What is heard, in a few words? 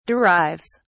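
"derives", English, British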